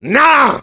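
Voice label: no, French; Demoman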